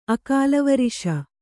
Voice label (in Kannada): "ಅಕಾಲವರಿಷ", Kannada